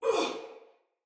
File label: Block Soldier Female; Battle; Barks; Dialog